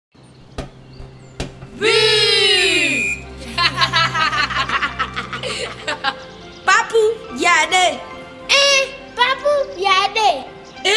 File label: guere